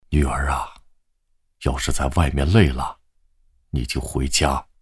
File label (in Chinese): 角色 爸样音